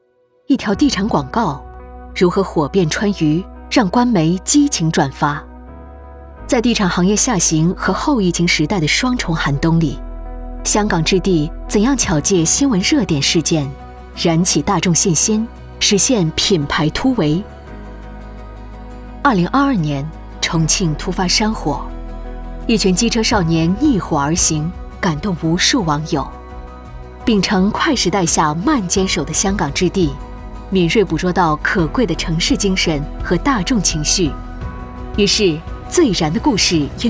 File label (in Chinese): 旁白 商业 坚定有力; 香港置地